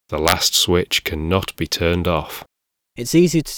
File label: mic harvard